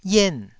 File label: "ien4", taiwanese syllables